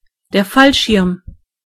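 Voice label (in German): "parachute", German; gcompris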